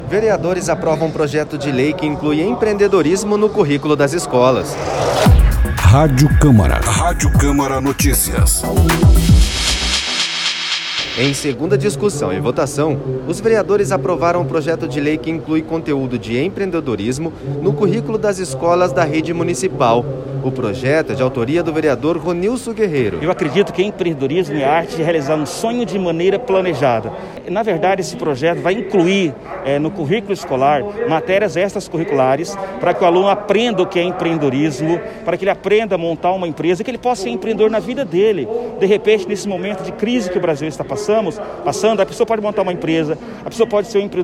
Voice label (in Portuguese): radio camara entrevista